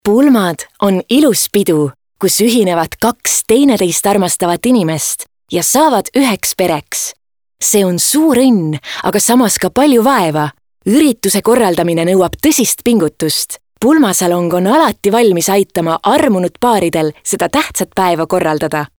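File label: Estonian female Inspirational, vo examples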